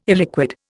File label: "illiquid", Indian